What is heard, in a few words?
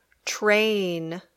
"train", English